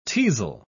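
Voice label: "teazel", British English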